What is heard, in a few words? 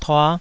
"tua5", taiwanese